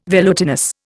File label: "velutinous", Indian